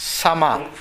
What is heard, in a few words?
"sama", minamiyamagata